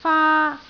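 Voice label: "faa1", Cantonese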